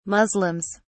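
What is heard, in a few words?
"muslims", British English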